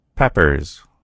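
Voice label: "peppers", American English; gcompris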